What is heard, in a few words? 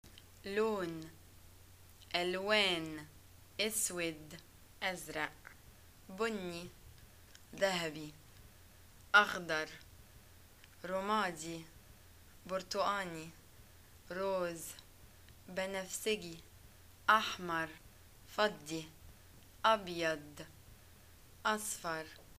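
Egyptian shopping colors, arabic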